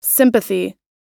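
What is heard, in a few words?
"sympathy", English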